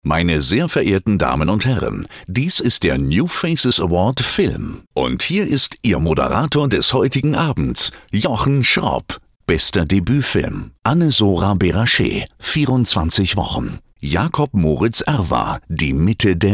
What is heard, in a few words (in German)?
K Fremdtake Freundlich